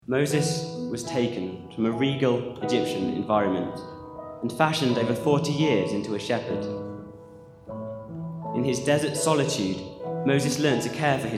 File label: Narration